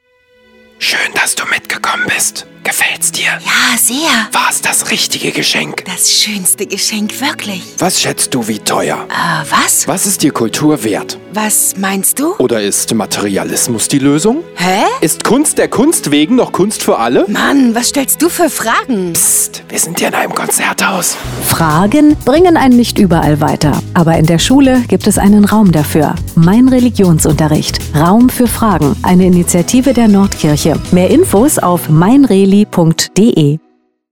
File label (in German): radiospot; relikampagne